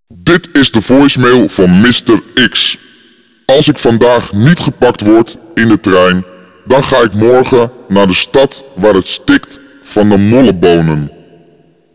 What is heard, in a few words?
voicemail